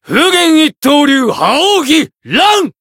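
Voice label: limit break, Japanese; shigure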